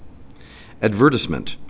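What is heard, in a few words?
"advertisement", Canadian English, male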